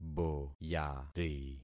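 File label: "boyari", concatenated syllables; Affixing experiment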